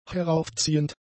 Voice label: "heraufziehend", German; adjectives